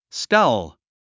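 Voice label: "scowl", English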